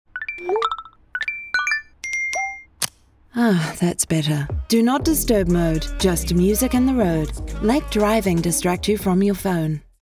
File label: radio ad